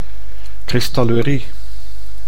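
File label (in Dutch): "kristallurie", Dutch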